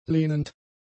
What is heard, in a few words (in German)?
"lehnend", German; adjectives